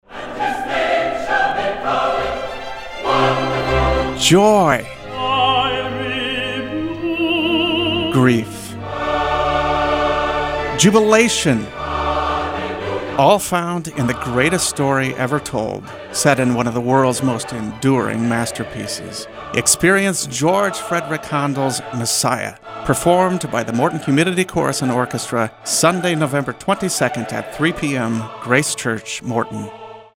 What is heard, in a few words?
radio spot; messiah 2015; wbnh